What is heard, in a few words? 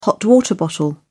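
"hot water bottle", British English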